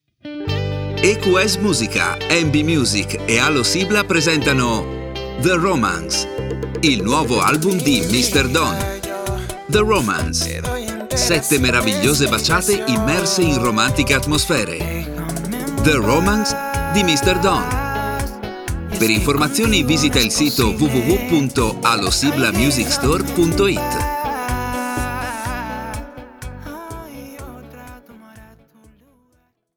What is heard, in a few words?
spot ita